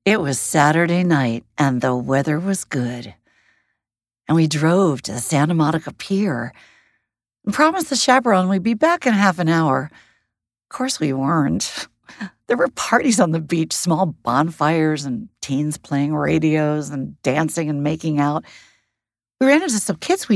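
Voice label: Fiction Fantasy, Audiobook Narration, 2 characters; A Tree Grows in Brooklyn / Fiction – Fantasy (2 characters)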